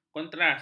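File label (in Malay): "kontras", Malay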